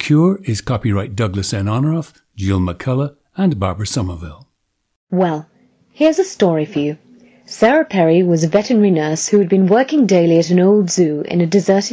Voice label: Received Pronunciation